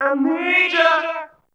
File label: Male FX Vocals; Houseworx